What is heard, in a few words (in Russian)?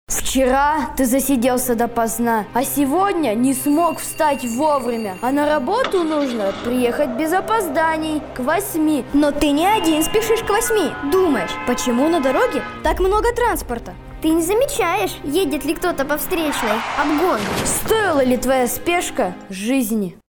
Обращение аудиоролик